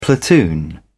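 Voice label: "platoon", British English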